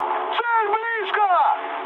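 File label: Russian near waypoint mood high, speech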